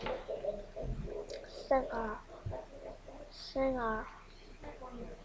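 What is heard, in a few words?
"singer", spoken word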